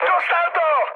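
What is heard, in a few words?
aircraft destroyed, Czech, mood high; War Thunder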